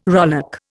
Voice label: "rowlock", Indian